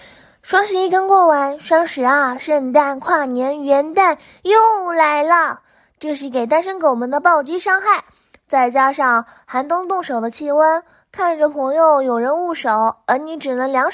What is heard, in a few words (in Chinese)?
商品促销词